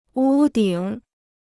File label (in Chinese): "屋顶", Chinese